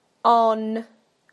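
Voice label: "on", British English; PictureDictionary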